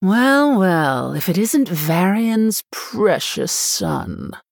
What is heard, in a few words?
female human, Start